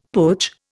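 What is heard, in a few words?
"putsch", Indian English; Dictionary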